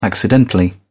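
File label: "accidentally", British English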